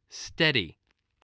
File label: "steady", voice